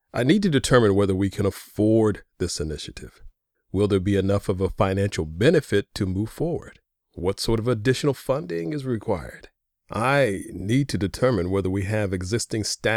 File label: elearning